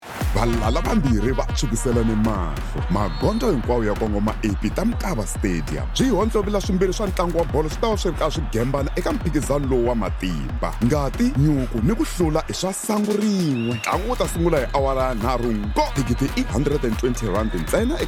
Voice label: Xitsonga Promo

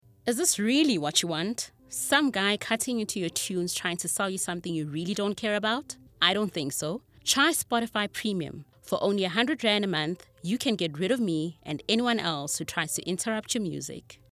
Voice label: PROMO

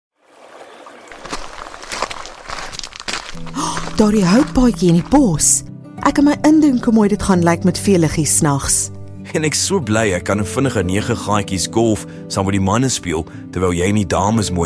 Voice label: Afrikaans Conversational

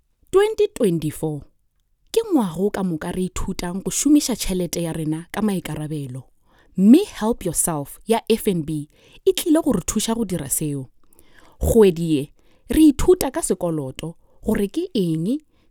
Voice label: Sepedi

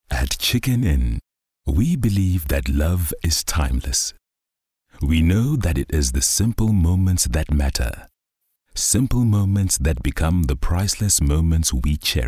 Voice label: English SoftSell

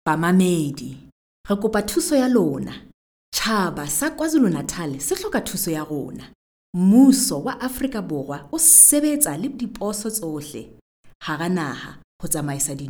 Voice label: Hard Sell

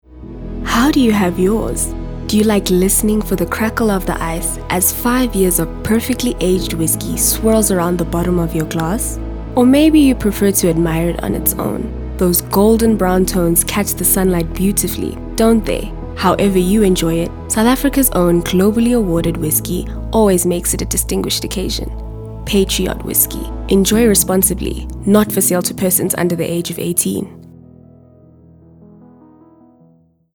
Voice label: Soft Sell; Patriot Whiskey

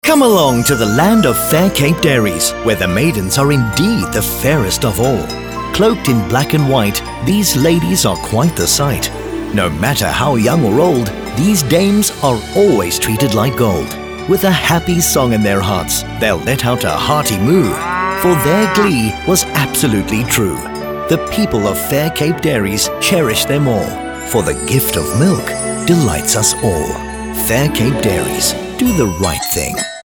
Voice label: Radio; Fair Cape Dairy

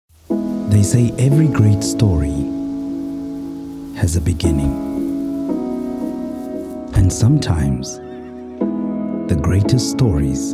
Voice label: Soft sell

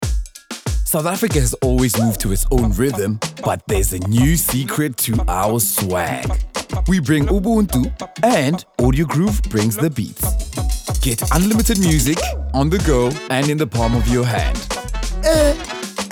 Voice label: M

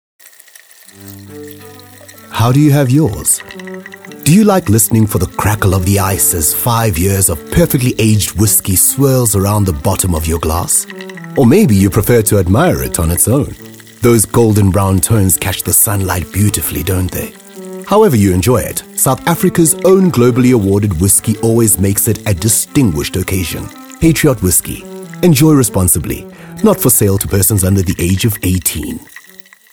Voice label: Soft Sell

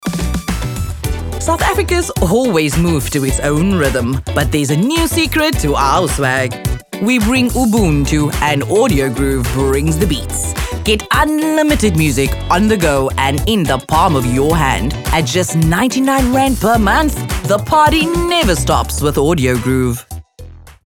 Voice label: Medium Sell Swag; South Africa

